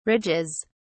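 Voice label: "ridges", British English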